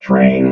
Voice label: "train", robot

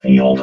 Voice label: "field", robot vox

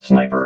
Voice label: "sniper", robot

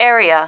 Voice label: "area", female

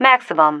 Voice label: "maximum", female

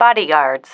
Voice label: "bodyguards", female

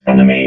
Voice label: "enemy", robot vox

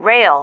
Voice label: "rail", female

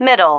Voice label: "middle", female

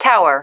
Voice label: "tower", female

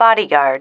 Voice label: "bodyguard", female

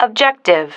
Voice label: "objective", female

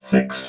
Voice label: "six", robot

